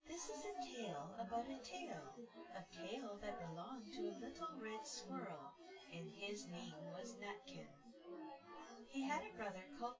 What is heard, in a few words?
female synth conv; SiSEC10; underdetermined